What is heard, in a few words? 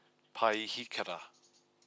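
"paihikira", Māori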